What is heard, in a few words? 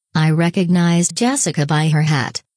"hat", womens clothing